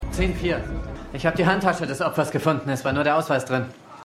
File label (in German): Polizist, Stimmproben; Law & Order